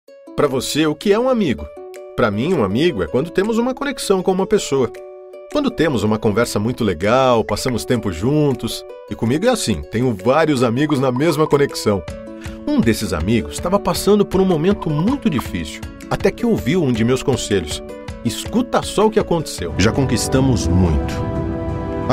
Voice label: repertorio locucoes

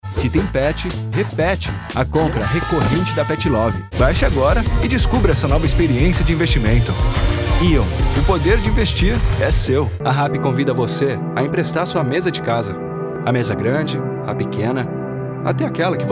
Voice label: Repertório voz natural: coloquial

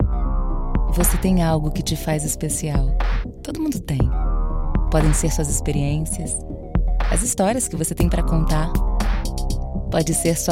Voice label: Loc Sedutora Locutora

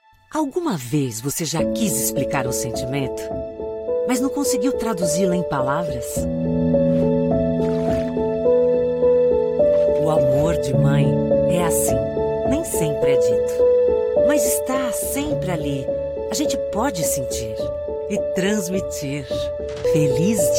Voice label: Repertório VOZ Íntima Amiga Cuidado Saúde

